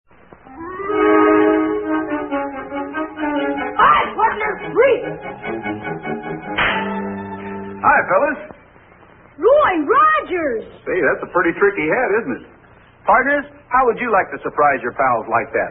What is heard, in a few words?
Vintage Commercials Quick Shooter Hat; Roy Rogers Quick Shooter Hat